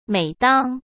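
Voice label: "每当", Mandarin Chinese; hsk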